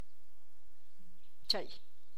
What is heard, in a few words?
"slow", Tlacochahuaya